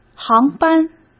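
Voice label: "航班", Mandarin Chinese; hsk; chinese-flashcards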